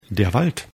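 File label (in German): "wald", German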